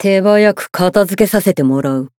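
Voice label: Voice variable chant, Japanese; xianhua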